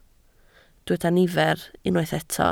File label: "nifer", Welsh